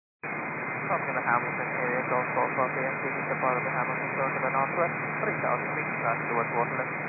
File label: 122.925 MHz; scanner radio